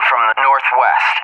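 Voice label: "from the northwest", English, USA; DCS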